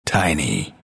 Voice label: announcer pick tiny; dlc bastion